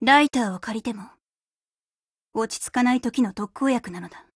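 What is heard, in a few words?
loading, Japanese; 少女前线:博斯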